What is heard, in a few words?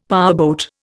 "powerboat", Indian; Dictionary